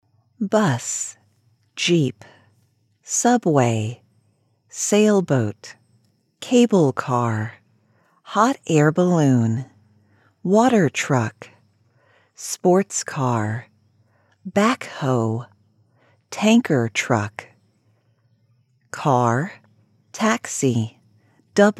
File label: ESL audition